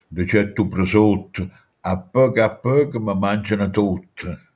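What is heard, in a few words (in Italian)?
"prosciutto", Manfredonia dialect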